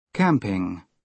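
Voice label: "camping", British English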